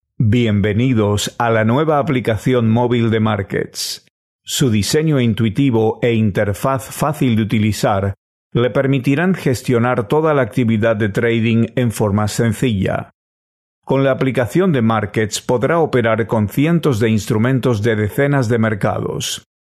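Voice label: Spanish, male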